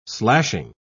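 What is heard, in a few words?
"slashing", British English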